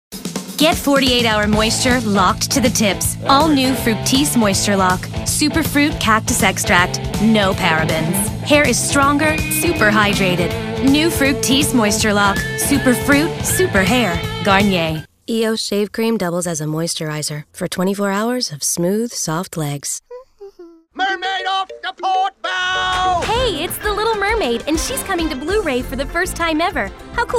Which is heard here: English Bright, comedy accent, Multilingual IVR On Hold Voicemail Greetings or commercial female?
commercial female